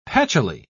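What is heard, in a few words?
"patchily", British English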